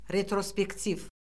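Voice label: "retrospektiv", Azerbaijani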